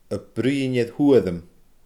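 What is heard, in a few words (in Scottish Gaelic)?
"a bruidhinn air thuaiream", Scottish Gaelic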